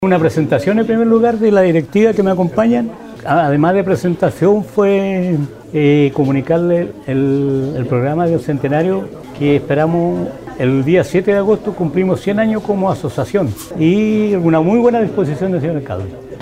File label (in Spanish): cuña; ANFA; LOCAL